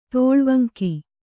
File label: "ತೋಳ್ವಂಕಿ", Kannada